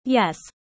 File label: user fast; how tall